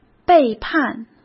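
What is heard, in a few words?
"背叛", Mandarin Chinese; chinese flashcards; hsk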